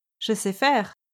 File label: "je sais faire", French